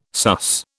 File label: "sus", British